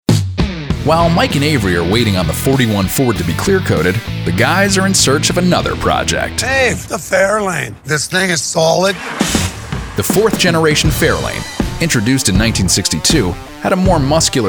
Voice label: Narration

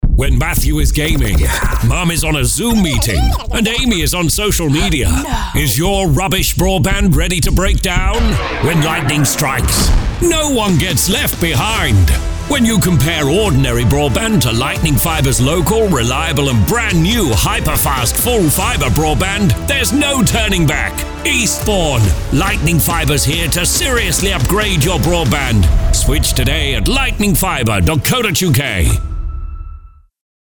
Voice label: Big Voice

